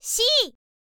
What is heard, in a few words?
"c", girl